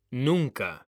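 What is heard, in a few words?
"nunca", Spanish; mekanta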